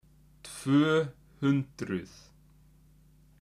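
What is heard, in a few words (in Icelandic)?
"tvö hundruð", Icelandic; tolur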